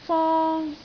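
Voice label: "fong1", Cantonese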